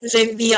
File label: "rebja", Proto Germanic